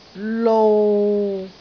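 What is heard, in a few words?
"lou6", Cantonese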